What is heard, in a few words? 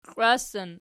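"klwassan" nominative, Archi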